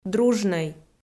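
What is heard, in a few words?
"дружнӧй", Komi, female; kpv audio dict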